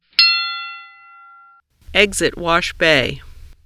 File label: Exit Wash Bay with effects